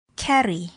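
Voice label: "carry", English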